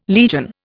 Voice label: "legion", Indian

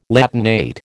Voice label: "Latinate", American

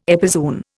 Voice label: "epizoon", Indian

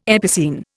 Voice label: "epicene", Indian

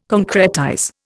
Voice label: "concretize", Indian

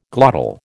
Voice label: "glottal", American

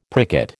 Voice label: "pricket", American

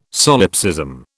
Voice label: "solipsism", British

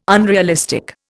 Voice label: "unrealistic", Indian

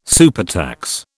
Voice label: "supertax", British

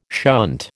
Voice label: "shunt", American

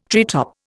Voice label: "treetop", Indian

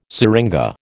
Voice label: "seringa", American

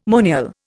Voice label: "monial", Indian

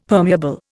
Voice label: "permeable", Indian; Dictionary

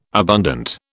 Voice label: "abundant", British

